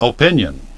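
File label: "opinion", English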